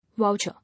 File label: "Voucher", English